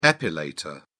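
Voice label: "epilator", British English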